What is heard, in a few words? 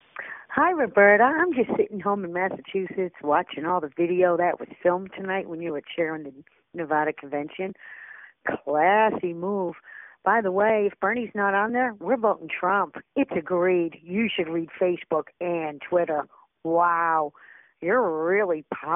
voicemail